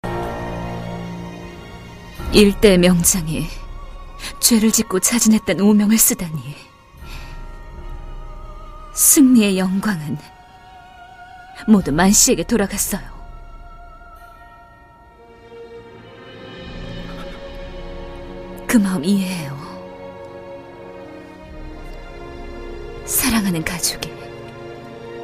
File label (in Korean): 지적이고 야망있는; 대막요; 진상